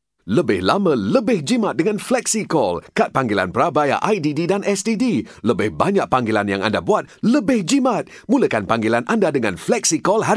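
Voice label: malay male voice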